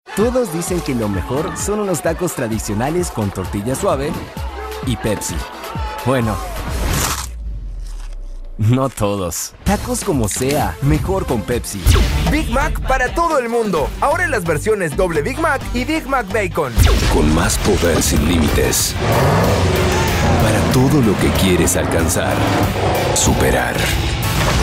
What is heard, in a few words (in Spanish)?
Comercial NEUTRO LATAM, Male